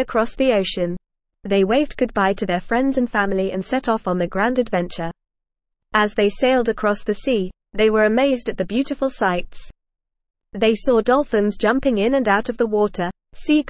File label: ESL Short Stories for Kids NORMAL reading The Exciting Sea Voyage